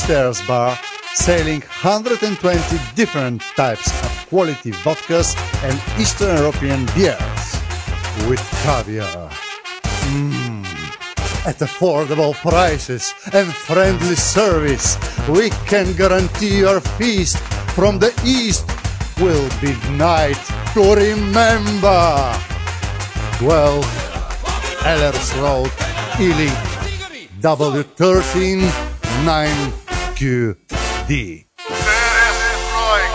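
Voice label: english russian male voice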